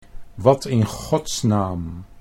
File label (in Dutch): "wat in Gods naam", Dutch